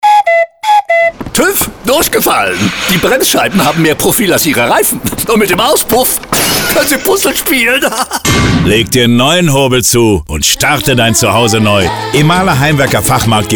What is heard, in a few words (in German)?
Radiospot; Mahler Bauzentrum Radiokampagne; mahler kampagne 2016